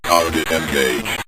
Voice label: target Engaged, Synth Voice; Synth Voice 2; Kenney's Sound Pack